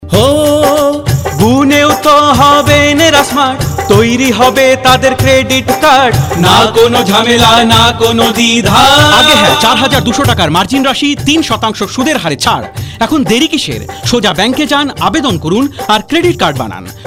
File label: promo bengali